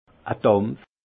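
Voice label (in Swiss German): "dampf", alsace Haut-Rhin; vin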